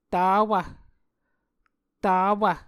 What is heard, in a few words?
"tawv", Muscogee